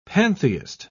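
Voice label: "pantheist", British English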